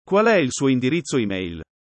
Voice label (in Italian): chiedere formale, italiano